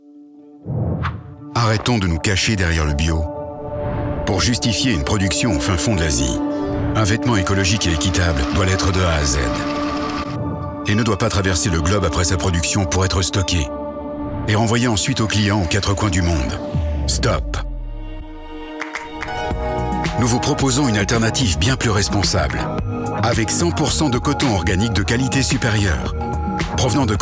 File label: vo warm deep straight engaged; motiondesign; honest